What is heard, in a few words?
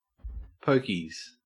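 "pokies", Australian English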